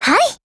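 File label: AGREE, Japanese; 88type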